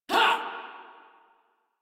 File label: Pain; Warframe; Venkra Tel